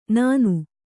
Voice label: "ನಾನು", Kannada

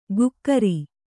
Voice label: "ಗುಕ್ಕರಿ", Kannada

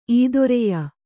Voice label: "ಈದೊರೆಯ", Kannada

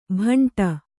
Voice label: "ಭಂಟ", kannada

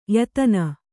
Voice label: "ಯತ್ನ" Kannada

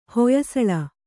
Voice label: "ಹೊಯ್ಸಳ", Kannada

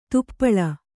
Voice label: "ದೀಪಾಳಿ", Kannada

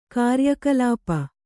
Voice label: "ಕಾರ್ಯಕಲಾಪ", Kannada

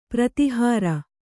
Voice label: "ಪ್ರತಿಹಾರ", Kannada

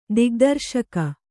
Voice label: "ದಿಗ್ದರ್ಶಕ", kannada

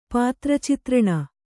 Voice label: "ಪಾತ್ರಚಿತ್ರಣ", Kannada

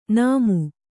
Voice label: "ನಾಮು", Kannada

